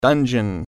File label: "dungeon", English